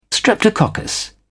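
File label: "streptococcus", English, British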